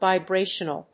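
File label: "Vibrational", English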